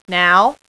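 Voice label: "Now", female; VOICE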